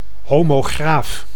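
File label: "homograaf", Dutch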